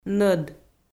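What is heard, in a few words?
"нӧд", Komi, female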